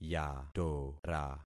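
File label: "yatora", concatenated syllables; Affixing experiment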